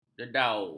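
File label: "dedau", Malay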